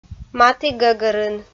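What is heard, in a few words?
"матігӧгӧрын", Komi, female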